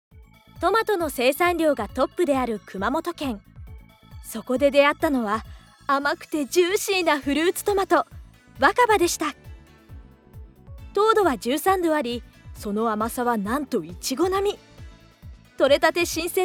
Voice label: NA 明るめ

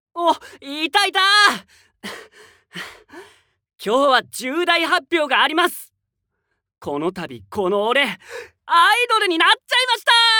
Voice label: アイドル

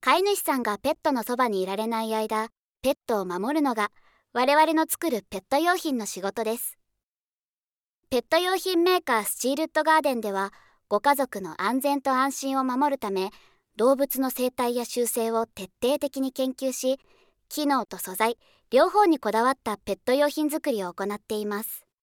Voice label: ナレーション

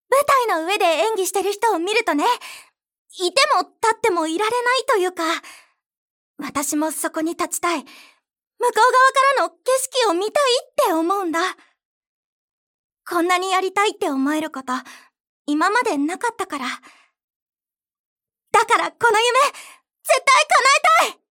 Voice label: 女子高生

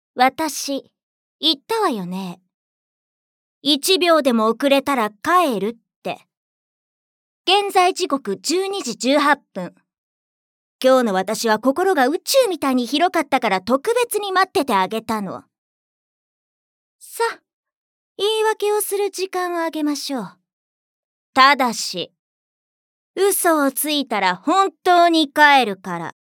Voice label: クール系

